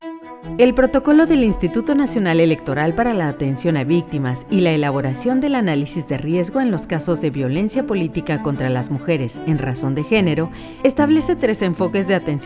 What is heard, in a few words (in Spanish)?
ESPANOL